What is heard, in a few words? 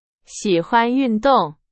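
Chinese, example sentence; hsk2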